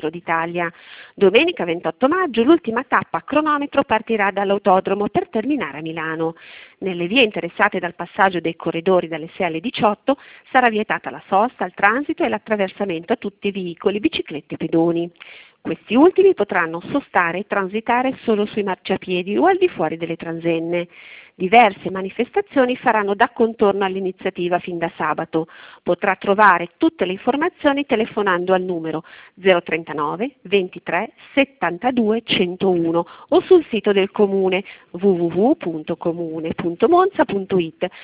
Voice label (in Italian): messaggio vocale